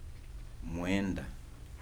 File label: "cloth", Kibembe